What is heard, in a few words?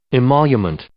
"emolument", English